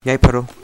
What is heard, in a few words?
good luck, manipuri